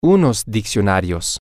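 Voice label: male, spanish; some Dictionaries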